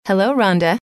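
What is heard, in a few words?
hello, female; trainer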